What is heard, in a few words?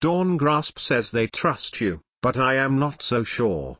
Male Troll